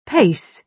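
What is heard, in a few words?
"pace", British English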